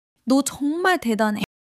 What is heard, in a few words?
joy